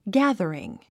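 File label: "gathering", English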